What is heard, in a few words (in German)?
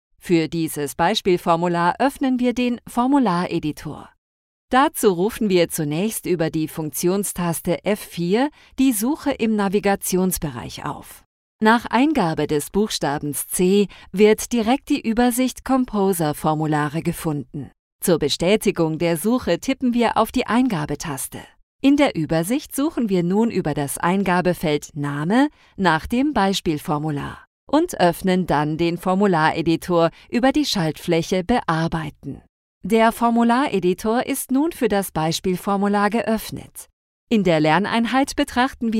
E-LEARNING warm-souveraen; AgfaHealthcare